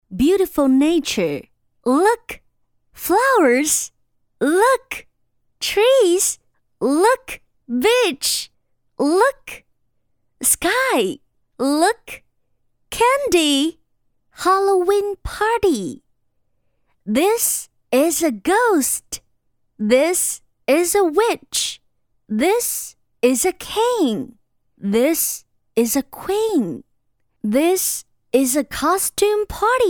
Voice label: 英文 绘本

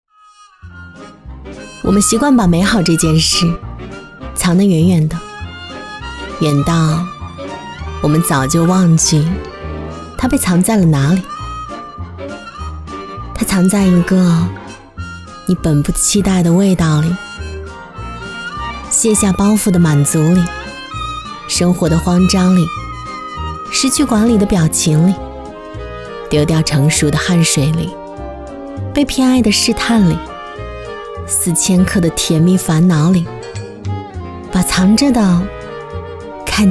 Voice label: 美好灵动广告旁白

